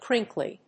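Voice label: "crinkly", English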